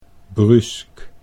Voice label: "bruusk", Dutch